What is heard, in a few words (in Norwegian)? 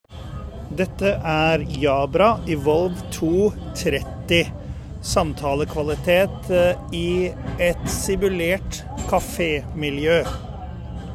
samtalelyd café; Jabra Evolve2 30